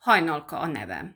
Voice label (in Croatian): mađarski; POP UP Zagreb